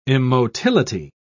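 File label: "immotility", British English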